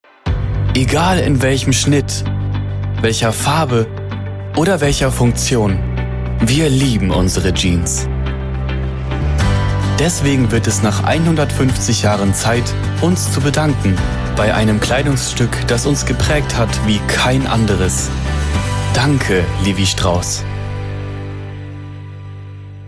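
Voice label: German, male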